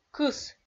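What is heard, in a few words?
"кыс", Komi, female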